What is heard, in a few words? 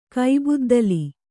"ಕೈಬುದ್ದಲಿ", kannada